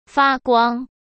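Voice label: "发光", Mandarin Chinese; hsk; chinese-flashcards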